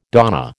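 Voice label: "donnée", American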